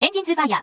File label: Tone ENG FIRE stretch half